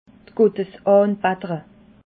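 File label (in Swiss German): "gottesabattere", Alsatian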